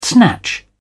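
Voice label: "snatch", British English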